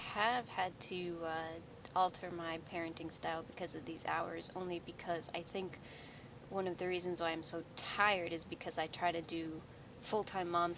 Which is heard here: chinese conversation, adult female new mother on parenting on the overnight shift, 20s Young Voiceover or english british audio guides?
adult female new mother on parenting on the overnight shift